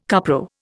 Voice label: "cupro", Indian